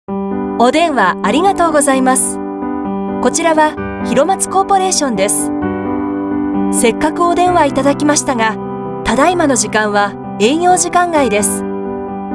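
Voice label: Japanese Female IVR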